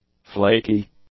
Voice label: "flaky", english; adjectives